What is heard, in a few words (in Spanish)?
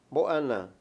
"pescar", Mayo